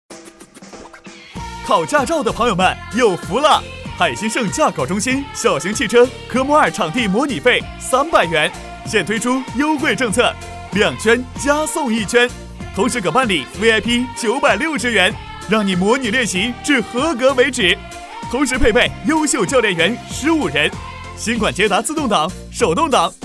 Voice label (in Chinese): 男 广告 驾考中心 激情活力; 海鑫盛